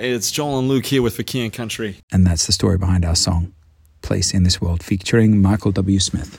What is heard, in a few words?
song backsell